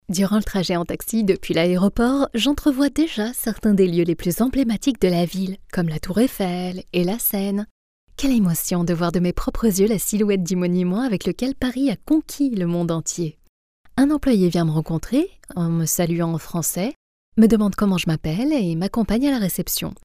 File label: locutora francesa french voiceover audioguide